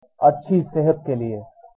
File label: cheers, hindi